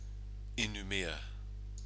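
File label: "inumia", Maori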